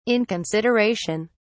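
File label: "inconsideration", British English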